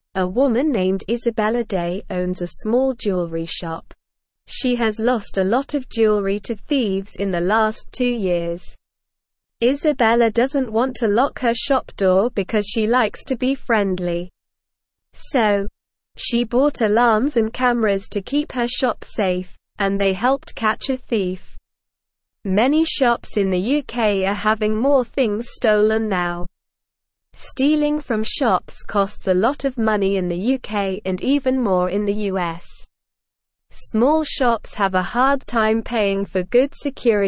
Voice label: English Lower Intermediate SLOW Reading; Shop Owner Catches Thief with Special Camera; English Newsroom